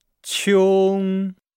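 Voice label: "qiong", Chinese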